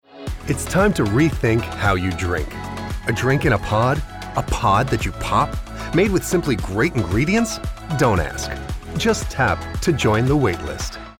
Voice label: male american english drink comercial; ideasonora